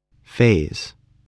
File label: "phase", voice, English